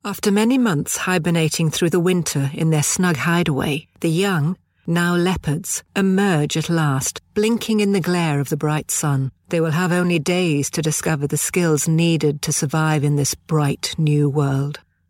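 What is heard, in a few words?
documentary, Neutral And Geordie Voiceover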